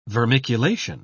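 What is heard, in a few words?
"vermiculation", British English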